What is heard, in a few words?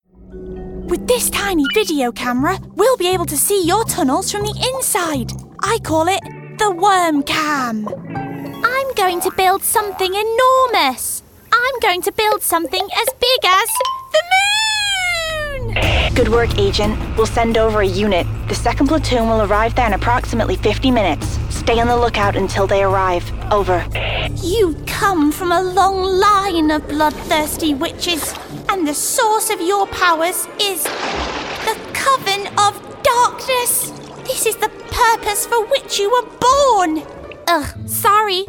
Animation